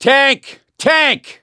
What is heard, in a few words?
heard hulk, voice; gambler